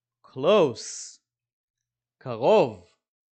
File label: "close" – "קרוב"